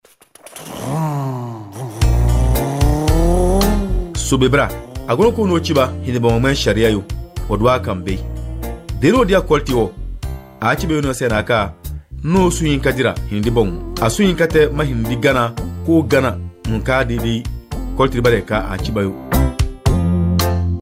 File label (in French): CODE DE LA ROUTE DENDI